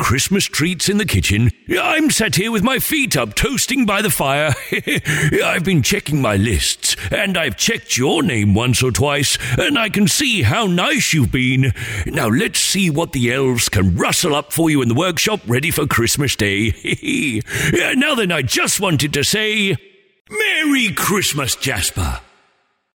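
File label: Personalised Santa Message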